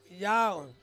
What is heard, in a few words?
"yau" I, Ansus